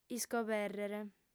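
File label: "iscobèrrere", Sardinian, female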